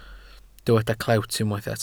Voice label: "clewt" Welsh

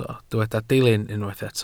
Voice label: "dilyn" Welsh

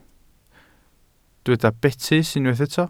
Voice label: "betys", Welsh

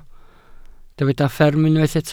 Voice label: "fferm" Welsh

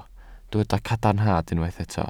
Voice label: "cadarnhad", Welsh

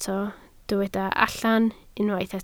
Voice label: "allan", Welsh

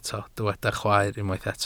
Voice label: "chwaer", Welsh

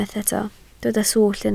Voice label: "swllt", Welsh

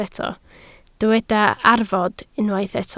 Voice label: "arfod", Welsh

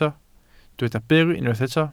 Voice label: "byr", Welsh

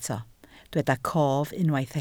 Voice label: "cof", Welsh

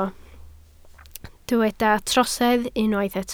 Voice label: "trosedd" Welsh

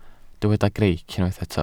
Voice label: "greic", Welsh

